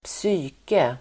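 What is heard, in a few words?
"psyke", Swedish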